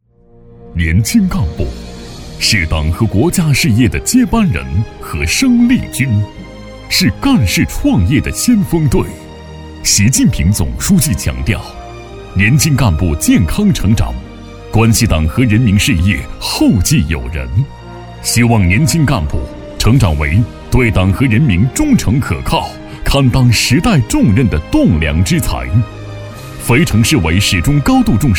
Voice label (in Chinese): 男 反腐专题; 迷失在浮华里的青春